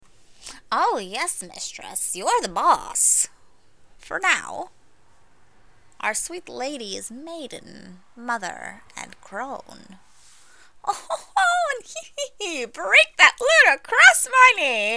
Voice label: jester type, unique voice auditions